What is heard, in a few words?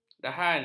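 "dahan", Malay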